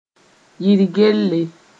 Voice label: "yir gelle", Mooré